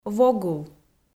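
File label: "вогул", Komi, female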